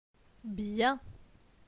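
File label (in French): "Bien", French; phonetique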